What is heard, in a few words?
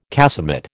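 "casemate", American English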